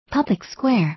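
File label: "public square", English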